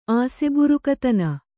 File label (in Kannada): "ಆಸೆಬುರುಕತನ", Kannada